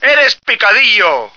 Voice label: Useless, male; Marisa the Magician